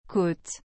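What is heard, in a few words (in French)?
"côte", French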